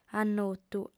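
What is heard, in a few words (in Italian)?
"annotu", lingua sarda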